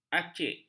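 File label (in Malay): "acik", Malay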